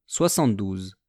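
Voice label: "soixante-douze", French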